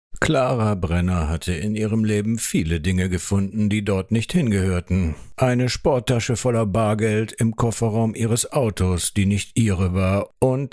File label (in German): Hoerprobe, sprecher